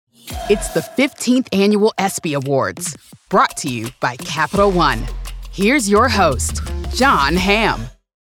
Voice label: Voice of God Gritty Sporty Resonant Authoritative; ESPY Awards